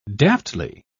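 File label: "daftly", British English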